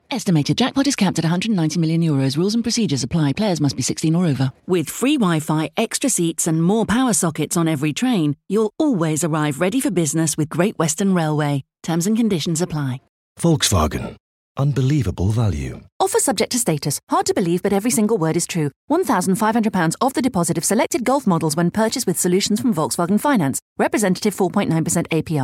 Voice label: commercials fast ts cs